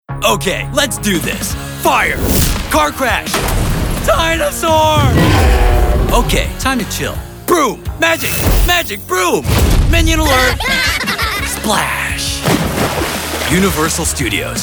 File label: Commercial